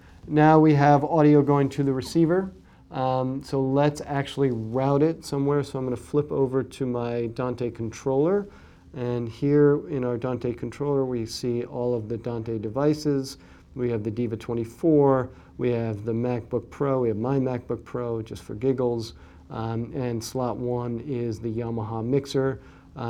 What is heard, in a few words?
Lectrosonics DSQD SMQV Analog